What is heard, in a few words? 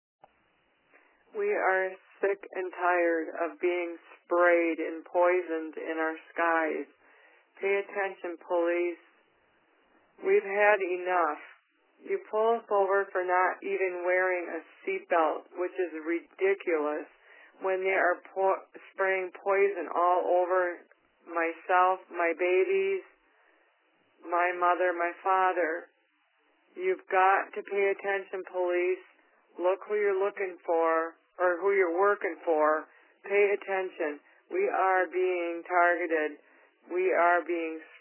voice message